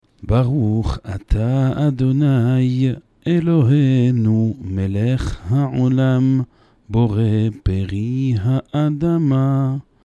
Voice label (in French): Benediction avant haricots blancs